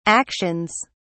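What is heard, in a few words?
"actions", British English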